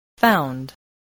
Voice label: "found", English voice, spelling